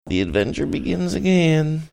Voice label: saying the adventure begins again funny

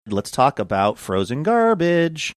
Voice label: saying let's talk about frozen garbage sing songy

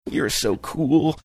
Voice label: saying you're so cool funny

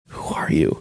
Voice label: whispering who are you

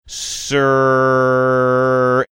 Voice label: drawn out sir

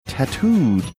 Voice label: saying tattooed funny manner

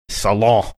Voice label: saying "salon" french

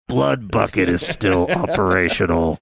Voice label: saying blood bucket is still operational gruff

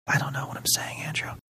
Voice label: whispering

